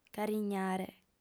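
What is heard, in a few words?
"carignare", Sardinian, female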